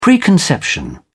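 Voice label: "preconception", British English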